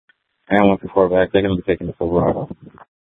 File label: Sheriff, scanner; Maricopa County (P25) - Thompson Peak; Sheriff Southeast